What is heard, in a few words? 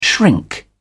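"shrink", British English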